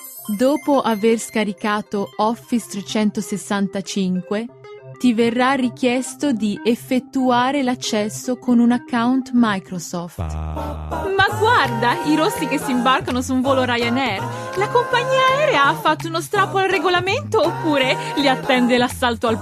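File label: Italian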